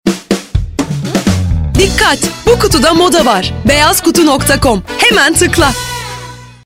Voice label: Dinamik

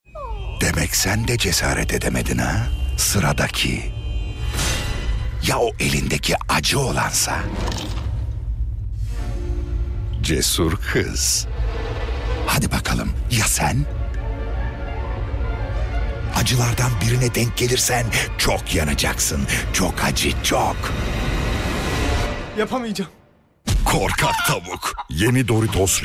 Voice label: enerjik esprili, seslendirme

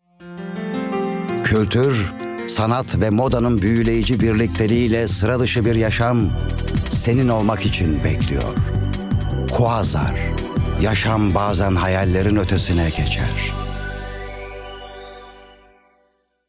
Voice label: duygusal motive

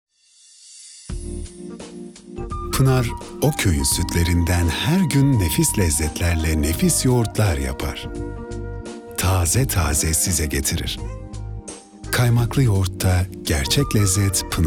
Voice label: sicak samimi

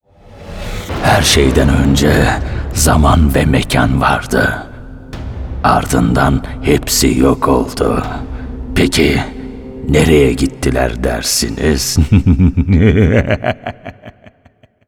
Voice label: animatik karakter

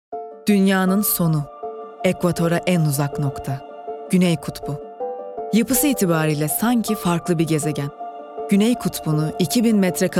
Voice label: belgesel, seslendirme

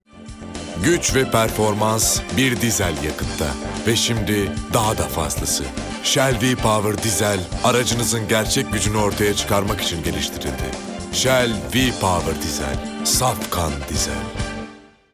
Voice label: otoriter guclu, seslendirme

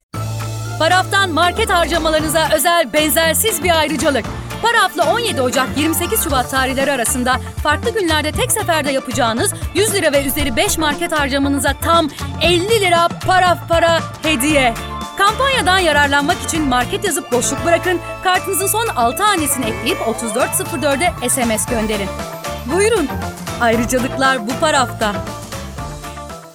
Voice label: neseli kampanya, seslendirme

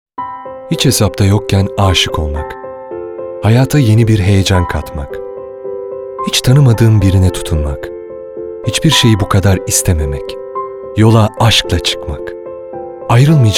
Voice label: duygusal motive, seslendirme

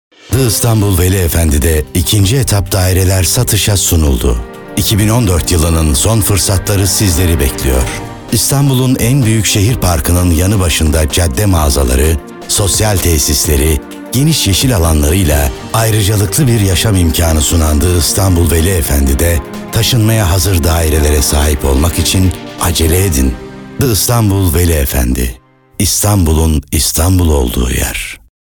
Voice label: tanitim

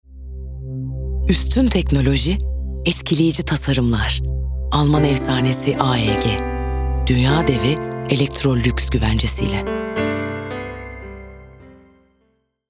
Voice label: sicak sakin; enerjik tempolu

